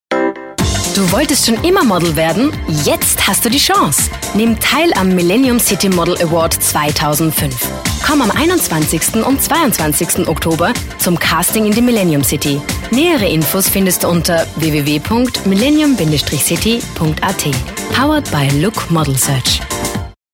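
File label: German commercial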